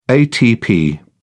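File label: "atp", English, British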